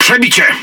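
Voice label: Speech Hit Confirmation, Polish; World Of Warships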